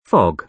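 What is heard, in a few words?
"fog", British English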